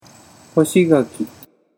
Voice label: "hoshigaki", Japanese

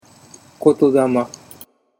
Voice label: "kotodama", Japanese

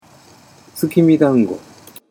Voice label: "tsukimidango", Japanese

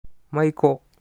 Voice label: "maiko", Japanese

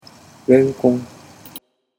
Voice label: "renkon", Japanese

